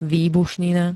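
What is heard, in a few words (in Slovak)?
"výbušnina", Slovak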